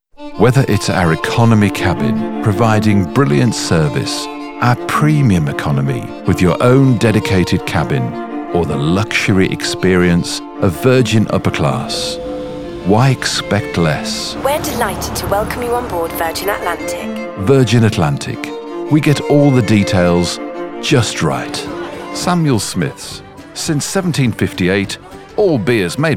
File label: US Commercial Demo